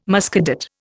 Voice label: "Muscadet", Indian

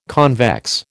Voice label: "convex", American

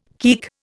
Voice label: "keek", Indian

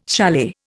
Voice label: "chalet", Indian

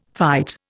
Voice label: "fight", Indian

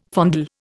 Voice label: "fondle", Indian

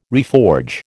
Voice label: "reforge", American

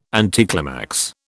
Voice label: "anticlimax", British

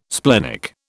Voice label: "splenic", British

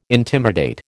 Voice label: "intimidate", American

